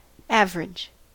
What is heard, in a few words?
"average", us